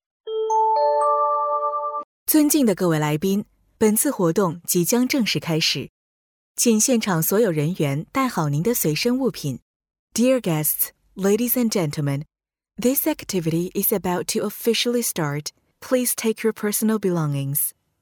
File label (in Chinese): 女 中英文样音 提示音